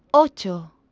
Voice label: "ocho", Spanish